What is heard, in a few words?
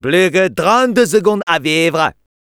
Medic taunts, fr